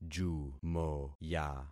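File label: "jumoya", concatenated syllables; Affixing experiment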